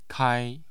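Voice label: "kai", Mandarin Chinese, tone 1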